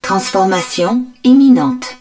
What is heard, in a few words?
HUD Transformation Imminent, french; Battle Engine Aquila